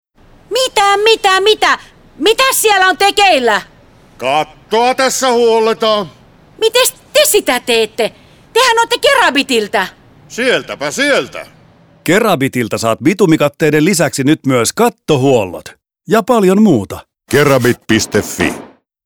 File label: kerabit radio; blink helsinki